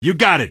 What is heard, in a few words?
"you got it", male; npc; Garry's Mod; hl2